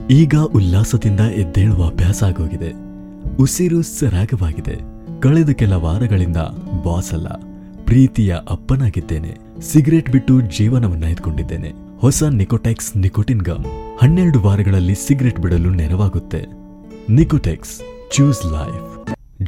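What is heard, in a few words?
Kannada male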